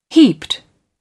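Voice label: "heaped", British English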